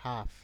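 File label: "half", Nigerian Pidgin, male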